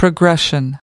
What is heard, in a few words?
"progression", us